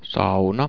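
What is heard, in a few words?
"sauna", Finnish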